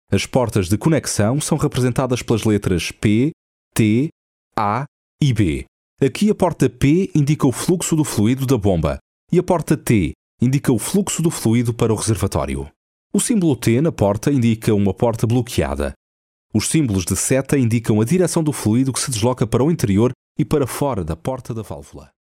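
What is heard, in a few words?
Portuguese, male, elearning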